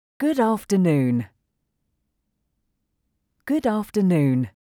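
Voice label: Good afternoon, English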